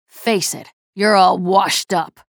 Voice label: chatter positive; nyx; frag